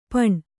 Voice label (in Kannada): "ಪಣ್", Kannada